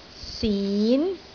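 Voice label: "sin2", Cantonese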